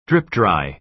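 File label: "drip-dry", American English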